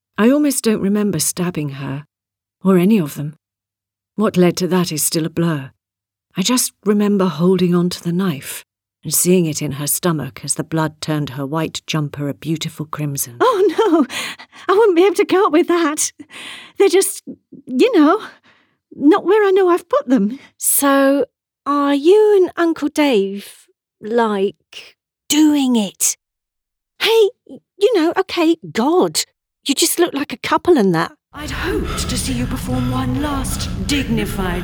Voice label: Quick Audiodrama